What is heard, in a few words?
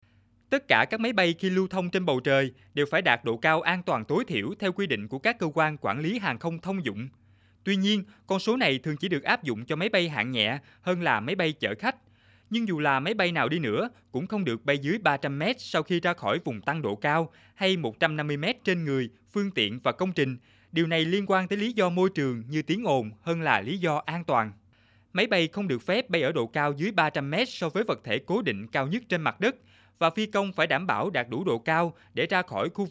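Vietnamese South, male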